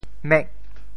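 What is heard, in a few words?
"mek8", Teochew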